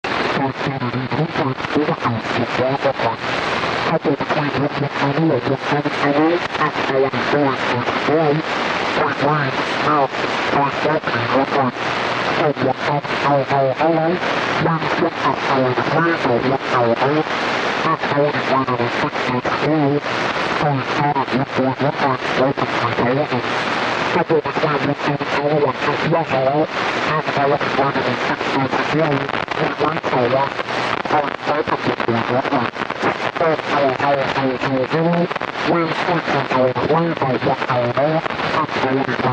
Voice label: Voices Encrypted, static

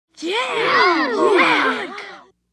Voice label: 3 Kids Say Yeah Wow

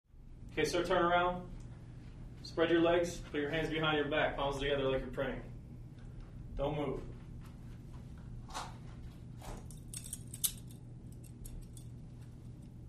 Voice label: Police Arrest Mock, walla

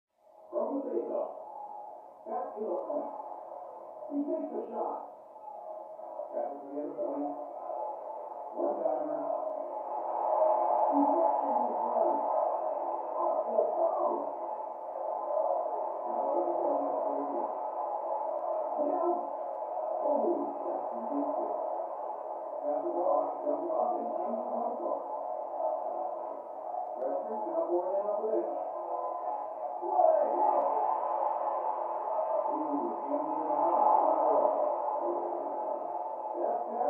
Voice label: Tv Hockey Game Annou

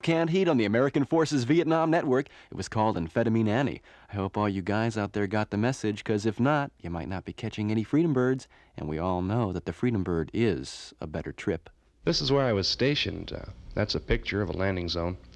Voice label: Radio Spot: Scrapbook Advisory; Memories Of Vietnam; Mono

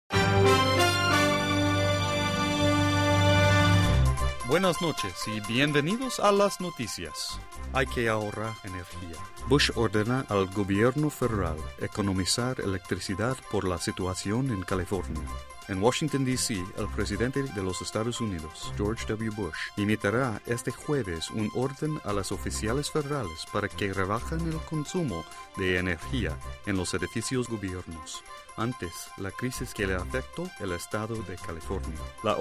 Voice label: TV Spanish Male News; foreign tv

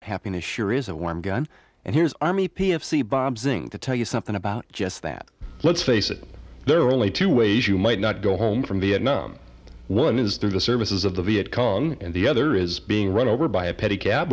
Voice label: Radio Spot: Weapon Advisory; Afvn; Mono

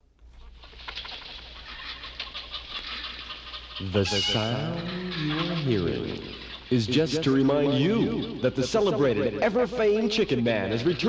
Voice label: Radio Spot, Radio Voice Over; Radio Spot: Afvn, Return Of Chicken Man. Mono

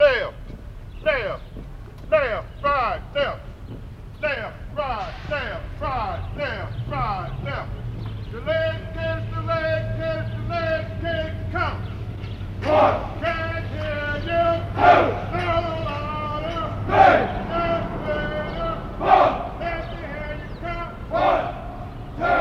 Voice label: Drill Instructor

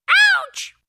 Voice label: Funny, Medium-high Pitched, Man Yells 'ouch!'.; reactions

